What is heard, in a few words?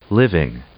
"living", English; adjective